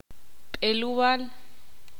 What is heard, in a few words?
ixil; nueve